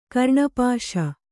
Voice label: "ಕರ್ಣಪಾಶ", kannada